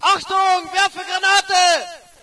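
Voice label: german fire in hole, player; video games; source engine; dod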